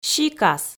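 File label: "сикас", Komi, female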